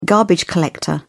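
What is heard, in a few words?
"garbage collector", English, British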